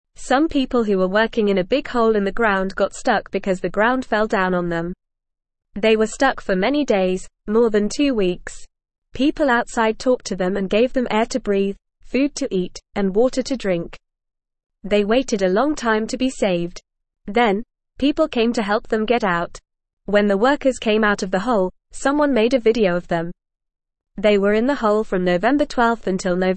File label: English Newsroom Beginner FAST Reading; Workers Rescued from Big Hole After Two Weeks